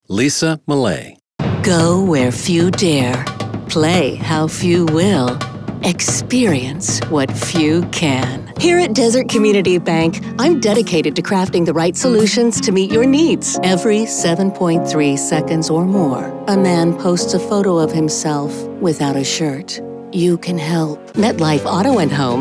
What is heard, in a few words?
commercial women